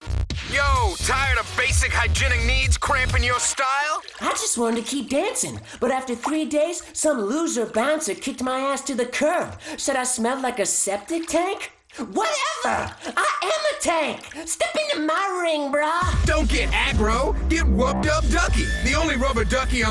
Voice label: Commercial; Wub Dub Duckie